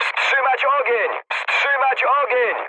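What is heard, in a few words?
player friendly fire, mood med, Polish speech; War Thunder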